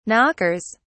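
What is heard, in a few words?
"knockers", English, British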